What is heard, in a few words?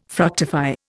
"fructify", Indian